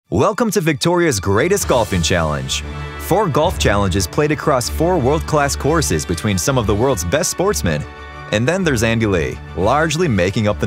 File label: Announcer American Male